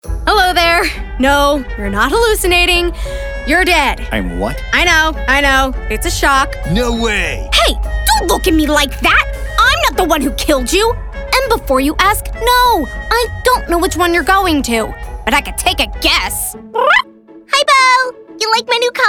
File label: character